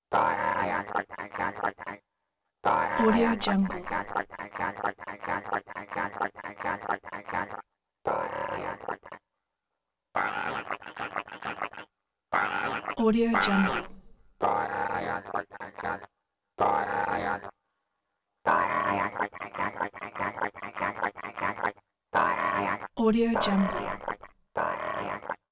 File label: robot voice nasty growly; prosoundfx